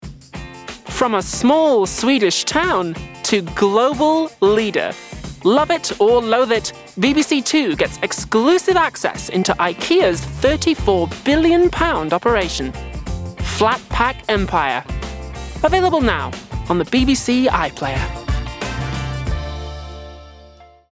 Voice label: promo